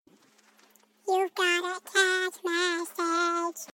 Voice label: Chipmunk

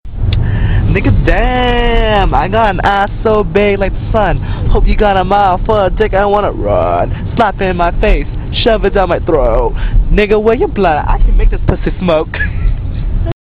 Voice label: rapping

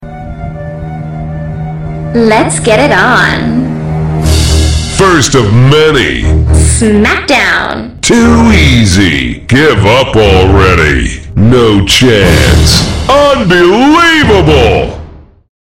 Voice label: anouncers

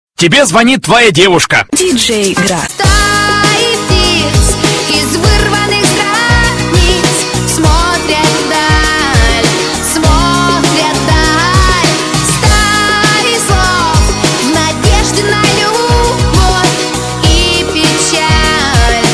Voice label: girl zvonit

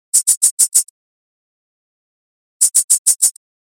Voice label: whisper; Kuroko-chi

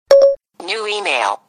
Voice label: voice "new email" notification

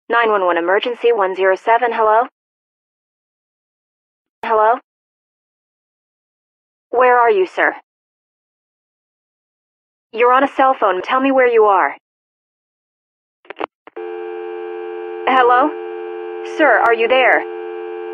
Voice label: funny 911 call; ringtones; sms

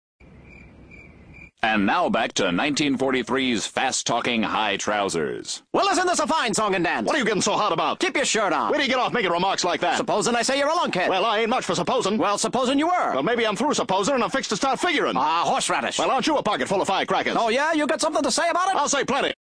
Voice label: fast talking

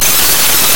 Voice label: message in telugu, sms; ringtones

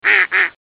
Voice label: the duck voice

